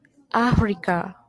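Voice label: "Africa", Japanese speaker of English, female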